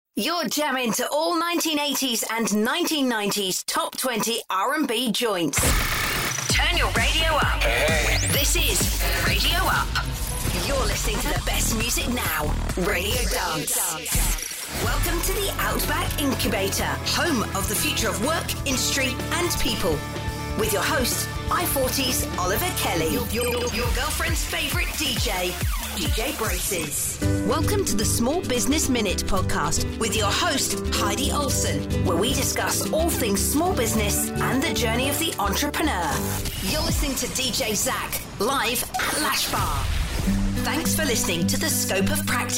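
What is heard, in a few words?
Imaging voice-over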